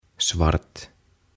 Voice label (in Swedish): "Svart" "Musta"